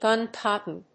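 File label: "guncotton", English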